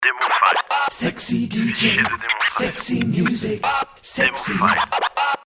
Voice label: Sexy DJ Sexy Music Sexy